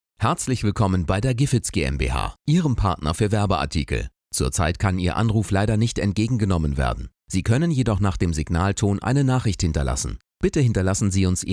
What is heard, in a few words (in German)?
stimmprobe anrufbeantworter